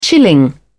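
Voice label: "chilling", British English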